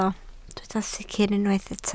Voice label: "sicr", Welsh